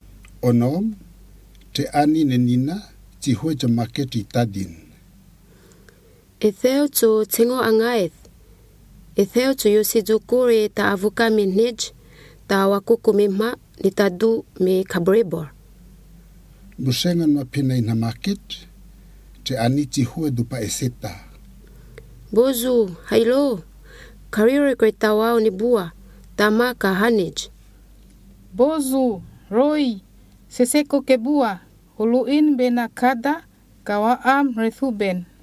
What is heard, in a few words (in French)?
dialogue entier